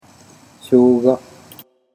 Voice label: "shoga", Japanese

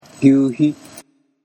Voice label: "gyuuhi", Japanese

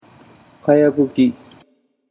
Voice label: "kayabuki", Japanese

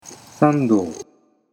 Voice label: "sandou", Japanese

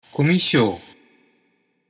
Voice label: "komishou", Japanese

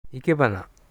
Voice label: "ikebana", Japanese

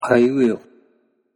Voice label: aiueo, Japanese utterance

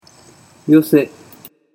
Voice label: "yose", Japanese

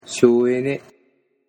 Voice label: "shouene", Japanese

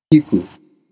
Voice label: "kiku", Japanese

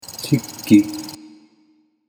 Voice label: "shikki", Japanese

